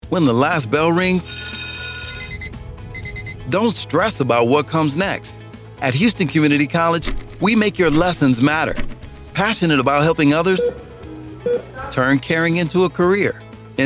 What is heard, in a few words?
English Male; metro